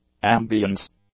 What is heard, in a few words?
"ambiance", English; nouns